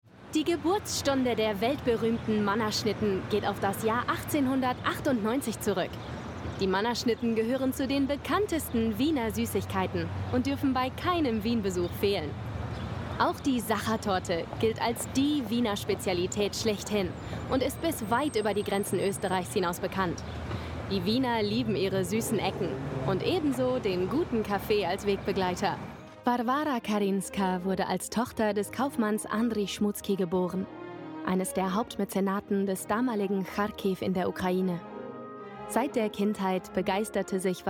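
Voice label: Documentary German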